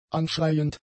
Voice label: "anschreiend", German; adjectives

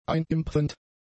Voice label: "einimpfend", German; adjectives

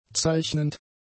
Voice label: "zeichnend", German; adjectives

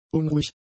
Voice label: "unruhig", German; adjectives

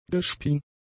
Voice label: "gespien", German; adjectives

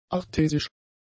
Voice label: "artesisch", German; adjectives

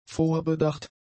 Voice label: "vorbedacht", German; adjectives

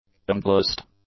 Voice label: "unglossed", english; adjectives

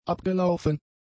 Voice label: "abgelaufen", German; adjectives

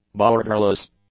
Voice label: "borderless", English; adjectives

